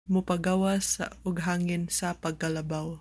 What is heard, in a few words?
Cebuano